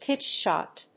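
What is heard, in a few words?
"pitch shot", English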